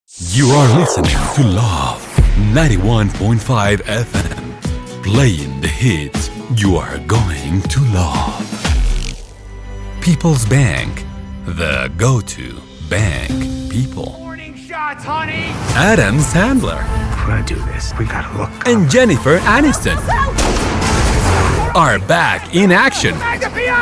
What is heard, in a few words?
English